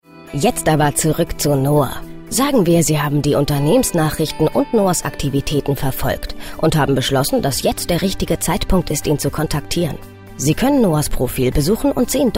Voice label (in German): Erklaerfilm; LinkedIn